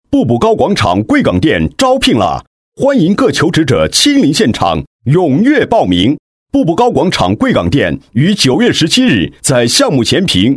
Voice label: 男99-广告-招聘; 步步高广场贵港店

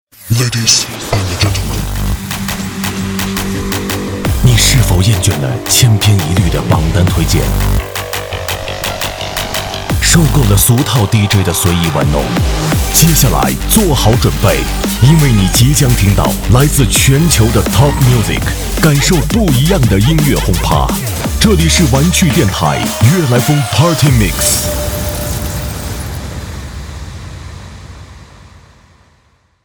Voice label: 男 片花 音乐节目

